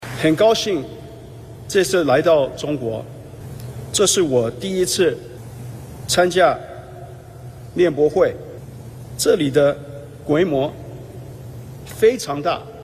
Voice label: Speaking Chinese; 新聞猜謎; News Game